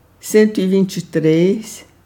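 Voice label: Portuguese numbers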